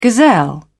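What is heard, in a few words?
"gazelle", British English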